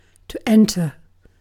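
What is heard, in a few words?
"enter", British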